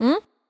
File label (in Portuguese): Confirmaçao neutra